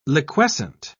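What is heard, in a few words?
"liquescent", British English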